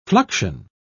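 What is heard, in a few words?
"fluxion", British English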